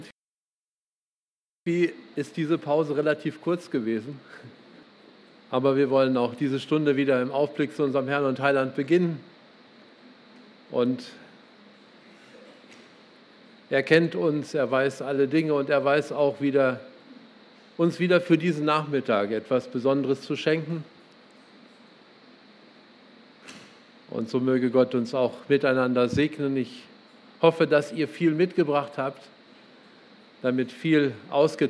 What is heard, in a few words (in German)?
Ansage; Lagerversammlung 2018